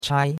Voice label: "chai1", chinois; Cours de Chinois

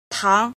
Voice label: "jin", Chinese

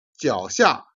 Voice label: "jian", Chinese

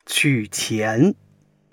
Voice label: "qu3 qian2", Chinese

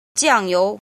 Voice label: "jin", Chinese

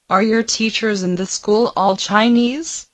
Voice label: Mandarin Conversation

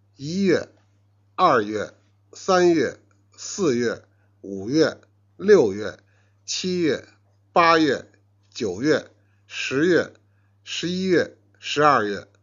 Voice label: "jian", Chinese

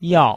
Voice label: "yao4", Chinese

